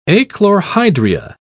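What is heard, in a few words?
"achlorhydria", British English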